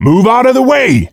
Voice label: Male Move Out Of The Way; Blastmorph Pinball; xbox series xs